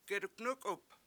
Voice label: "scold", Washo; geduNUkup